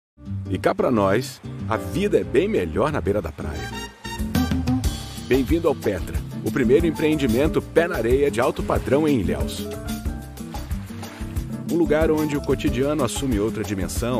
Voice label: Conversational Natural